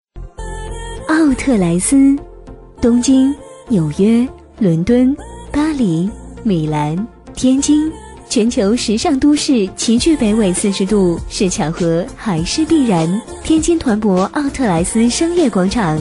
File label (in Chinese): 女 广告配音 柔和 品质; 天津奥特莱斯; 年轻舒缓